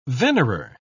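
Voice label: "venerer", British English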